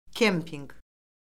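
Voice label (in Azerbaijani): "kempinq", Azerbaijani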